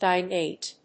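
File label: "Dinate", English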